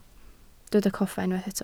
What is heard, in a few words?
"coffa", Welsh